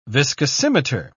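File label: "viscosimeter", English, British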